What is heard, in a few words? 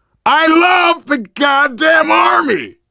world farmhouse, voice, biker